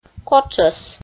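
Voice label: "котшӧс", Komi, female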